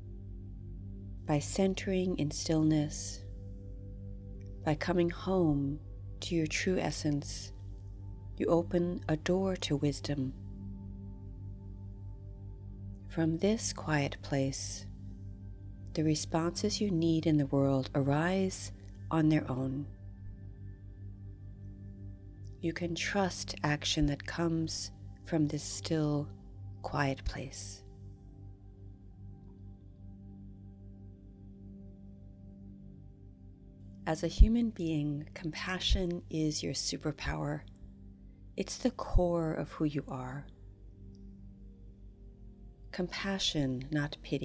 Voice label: Meditation with music; Ukraine